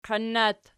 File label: "qoin", Archi